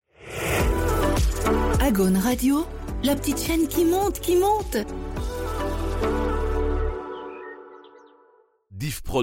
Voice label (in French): Radio Jingle La petite chaine qui monte; Agaune